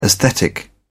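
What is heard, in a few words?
"aesthetic", English, uk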